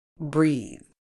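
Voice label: "breathe", British English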